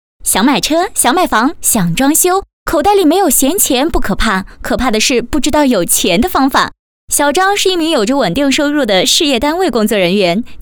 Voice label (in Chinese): 女, 广告; 家福贷